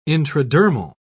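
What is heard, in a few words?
"intradermal", British English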